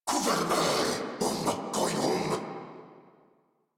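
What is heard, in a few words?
Cover Me, Male, English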